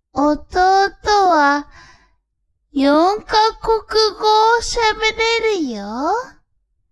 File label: woman